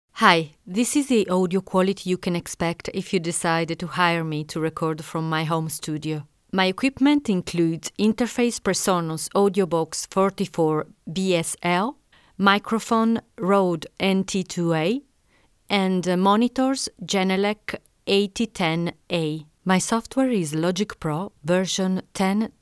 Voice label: home studio read

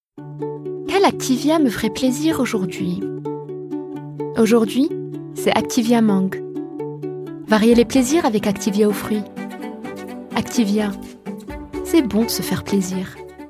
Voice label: French

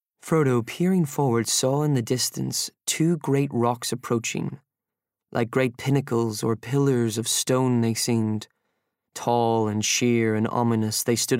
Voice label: Audiobook; 20s-40s; Male; Northern Irish

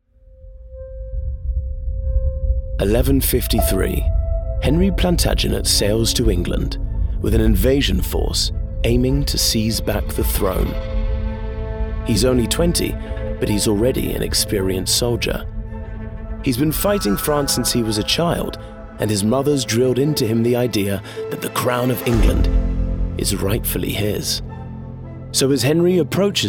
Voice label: Documentary

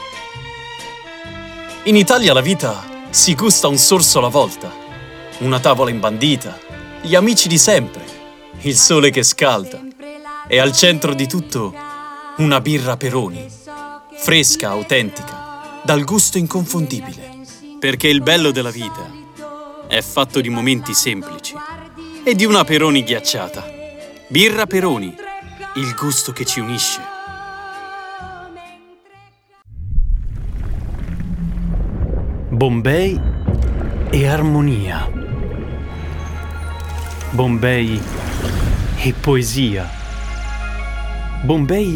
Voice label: Italian

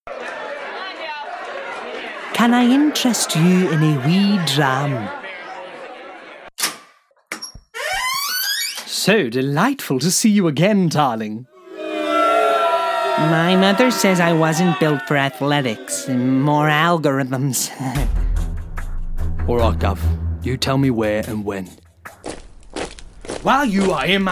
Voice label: Animation

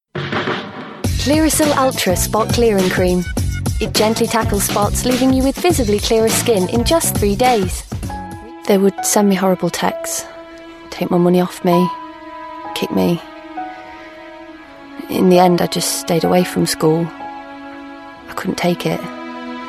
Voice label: Yorkshire

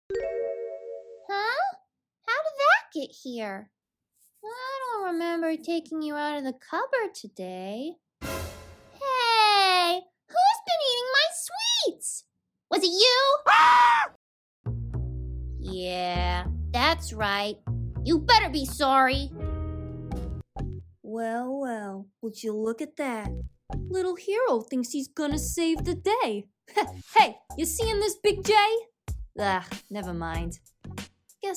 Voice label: Animation, Female voice over

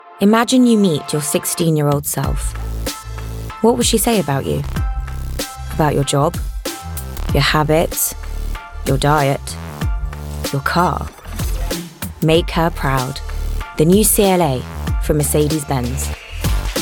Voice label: Commercial

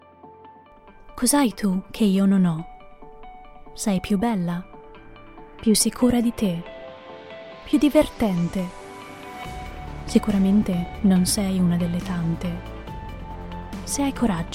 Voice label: Italian

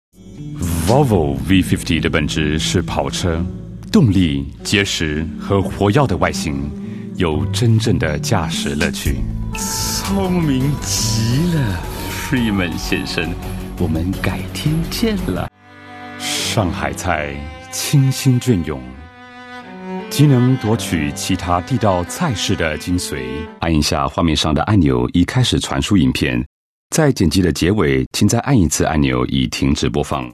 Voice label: Chinese-Mandarin, Male, Home Studio; 20s-40s; Home Studio Read

